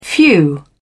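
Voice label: "few", English, British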